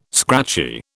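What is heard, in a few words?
"scratchy", British English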